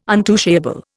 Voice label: "untouchable", Indian